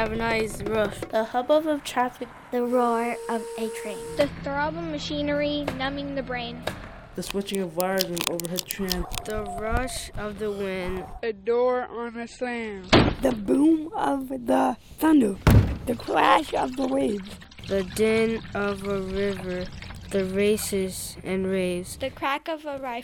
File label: Noise Poem